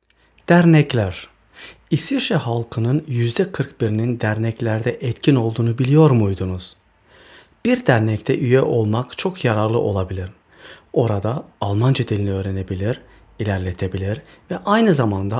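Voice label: Turkish; vereine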